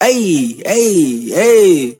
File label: "hey hey hey" male, voice; ooh ahh